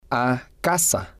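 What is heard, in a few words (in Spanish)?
a Casa, spanish, male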